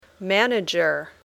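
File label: "manager", English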